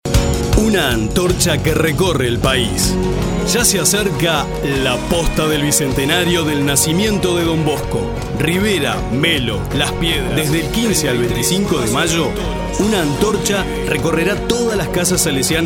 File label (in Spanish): promo radio; salto